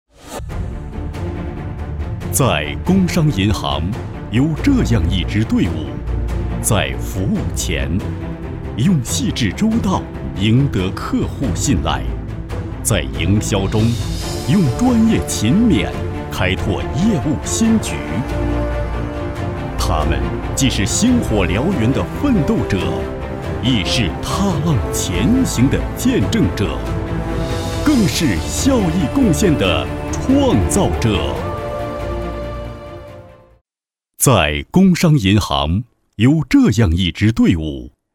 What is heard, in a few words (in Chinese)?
男 专题 工商银行